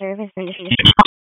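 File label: Raleigh Police Special Operations Tactical, p25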